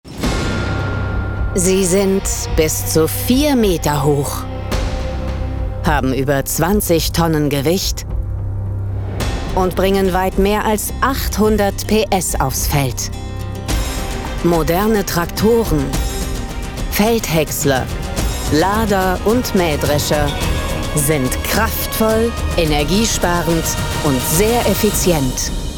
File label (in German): Werbung Technik Sprecherin; markant & präzise - Landmaschinen